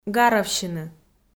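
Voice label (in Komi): "гаравсьыны", Komi, female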